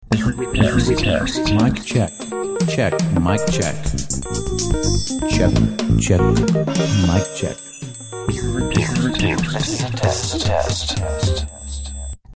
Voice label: mic check ringtone

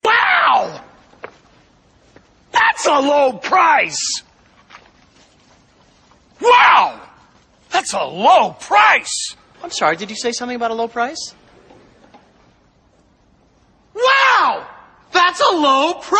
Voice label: funny man ringtone

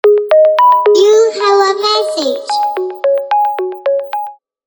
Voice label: you have a msg sms child voice tone

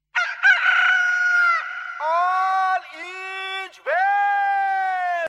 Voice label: all is well sms ringtone

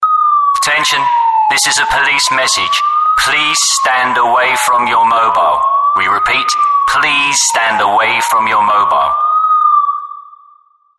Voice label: attention please notification; sms tone

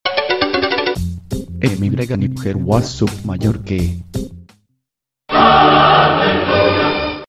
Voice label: spanish man ringtone

